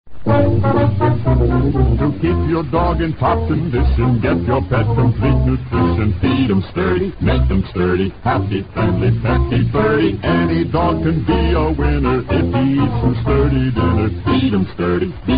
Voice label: commercials sturdy dog food